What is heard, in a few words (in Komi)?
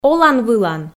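"олан-вылан", Komi, female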